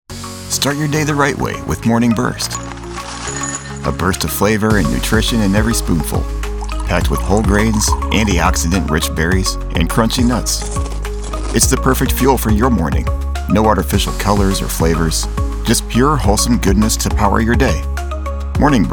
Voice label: Morning Burst, Bright, Youthful, Breakfast; Television Spots

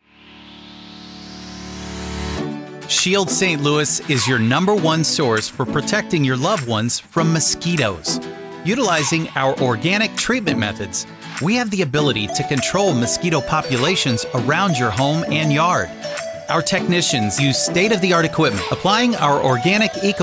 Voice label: TV Spot

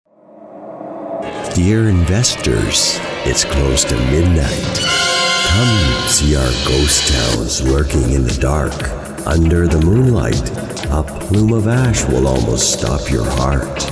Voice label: Irish Thriller

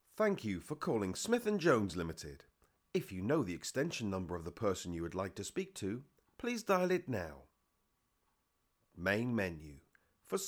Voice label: Telephone system example

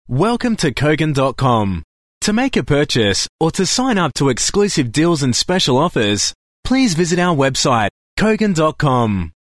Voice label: corporate sample

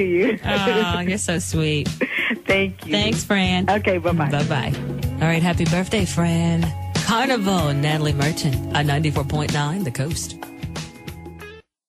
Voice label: Radio show mix

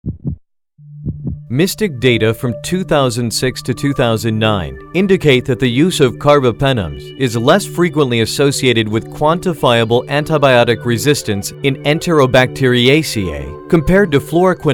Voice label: Medical Narration

